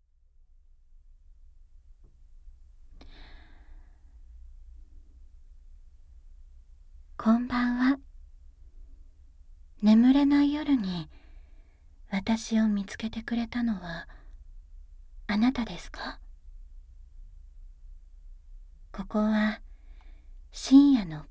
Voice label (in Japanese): 眠れぬ夜の癒しの声; かくれがらじお; 優しい夜を過ごしましょう